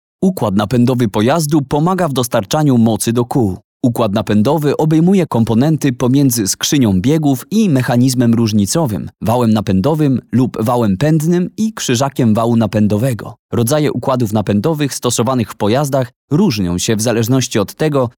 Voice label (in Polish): uklad napedowy korporacyjny e-learning